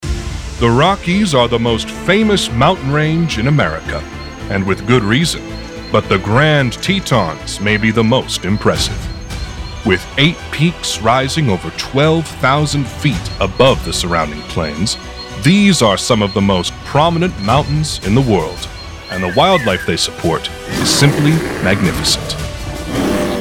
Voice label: Narration